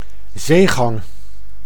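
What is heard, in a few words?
"zeegang", Dutch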